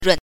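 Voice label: "run", Mandarin Chinese syllable, neutral tone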